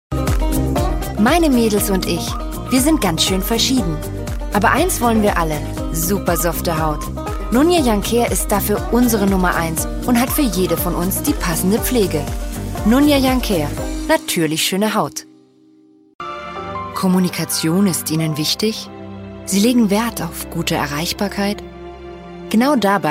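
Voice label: German General; GoLocalise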